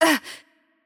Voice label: VO Hurt Light, it IT; bloom minigame